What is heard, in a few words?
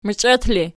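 "meichet", ergative, Archi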